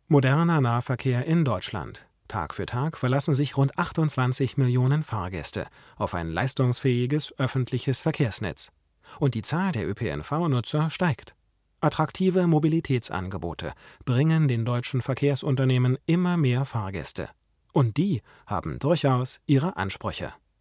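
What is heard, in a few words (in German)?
U87 bearbeitet, Sprecherraum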